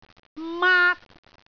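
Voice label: "maak1", Cantonese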